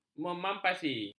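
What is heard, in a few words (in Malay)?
"memampasi", Malay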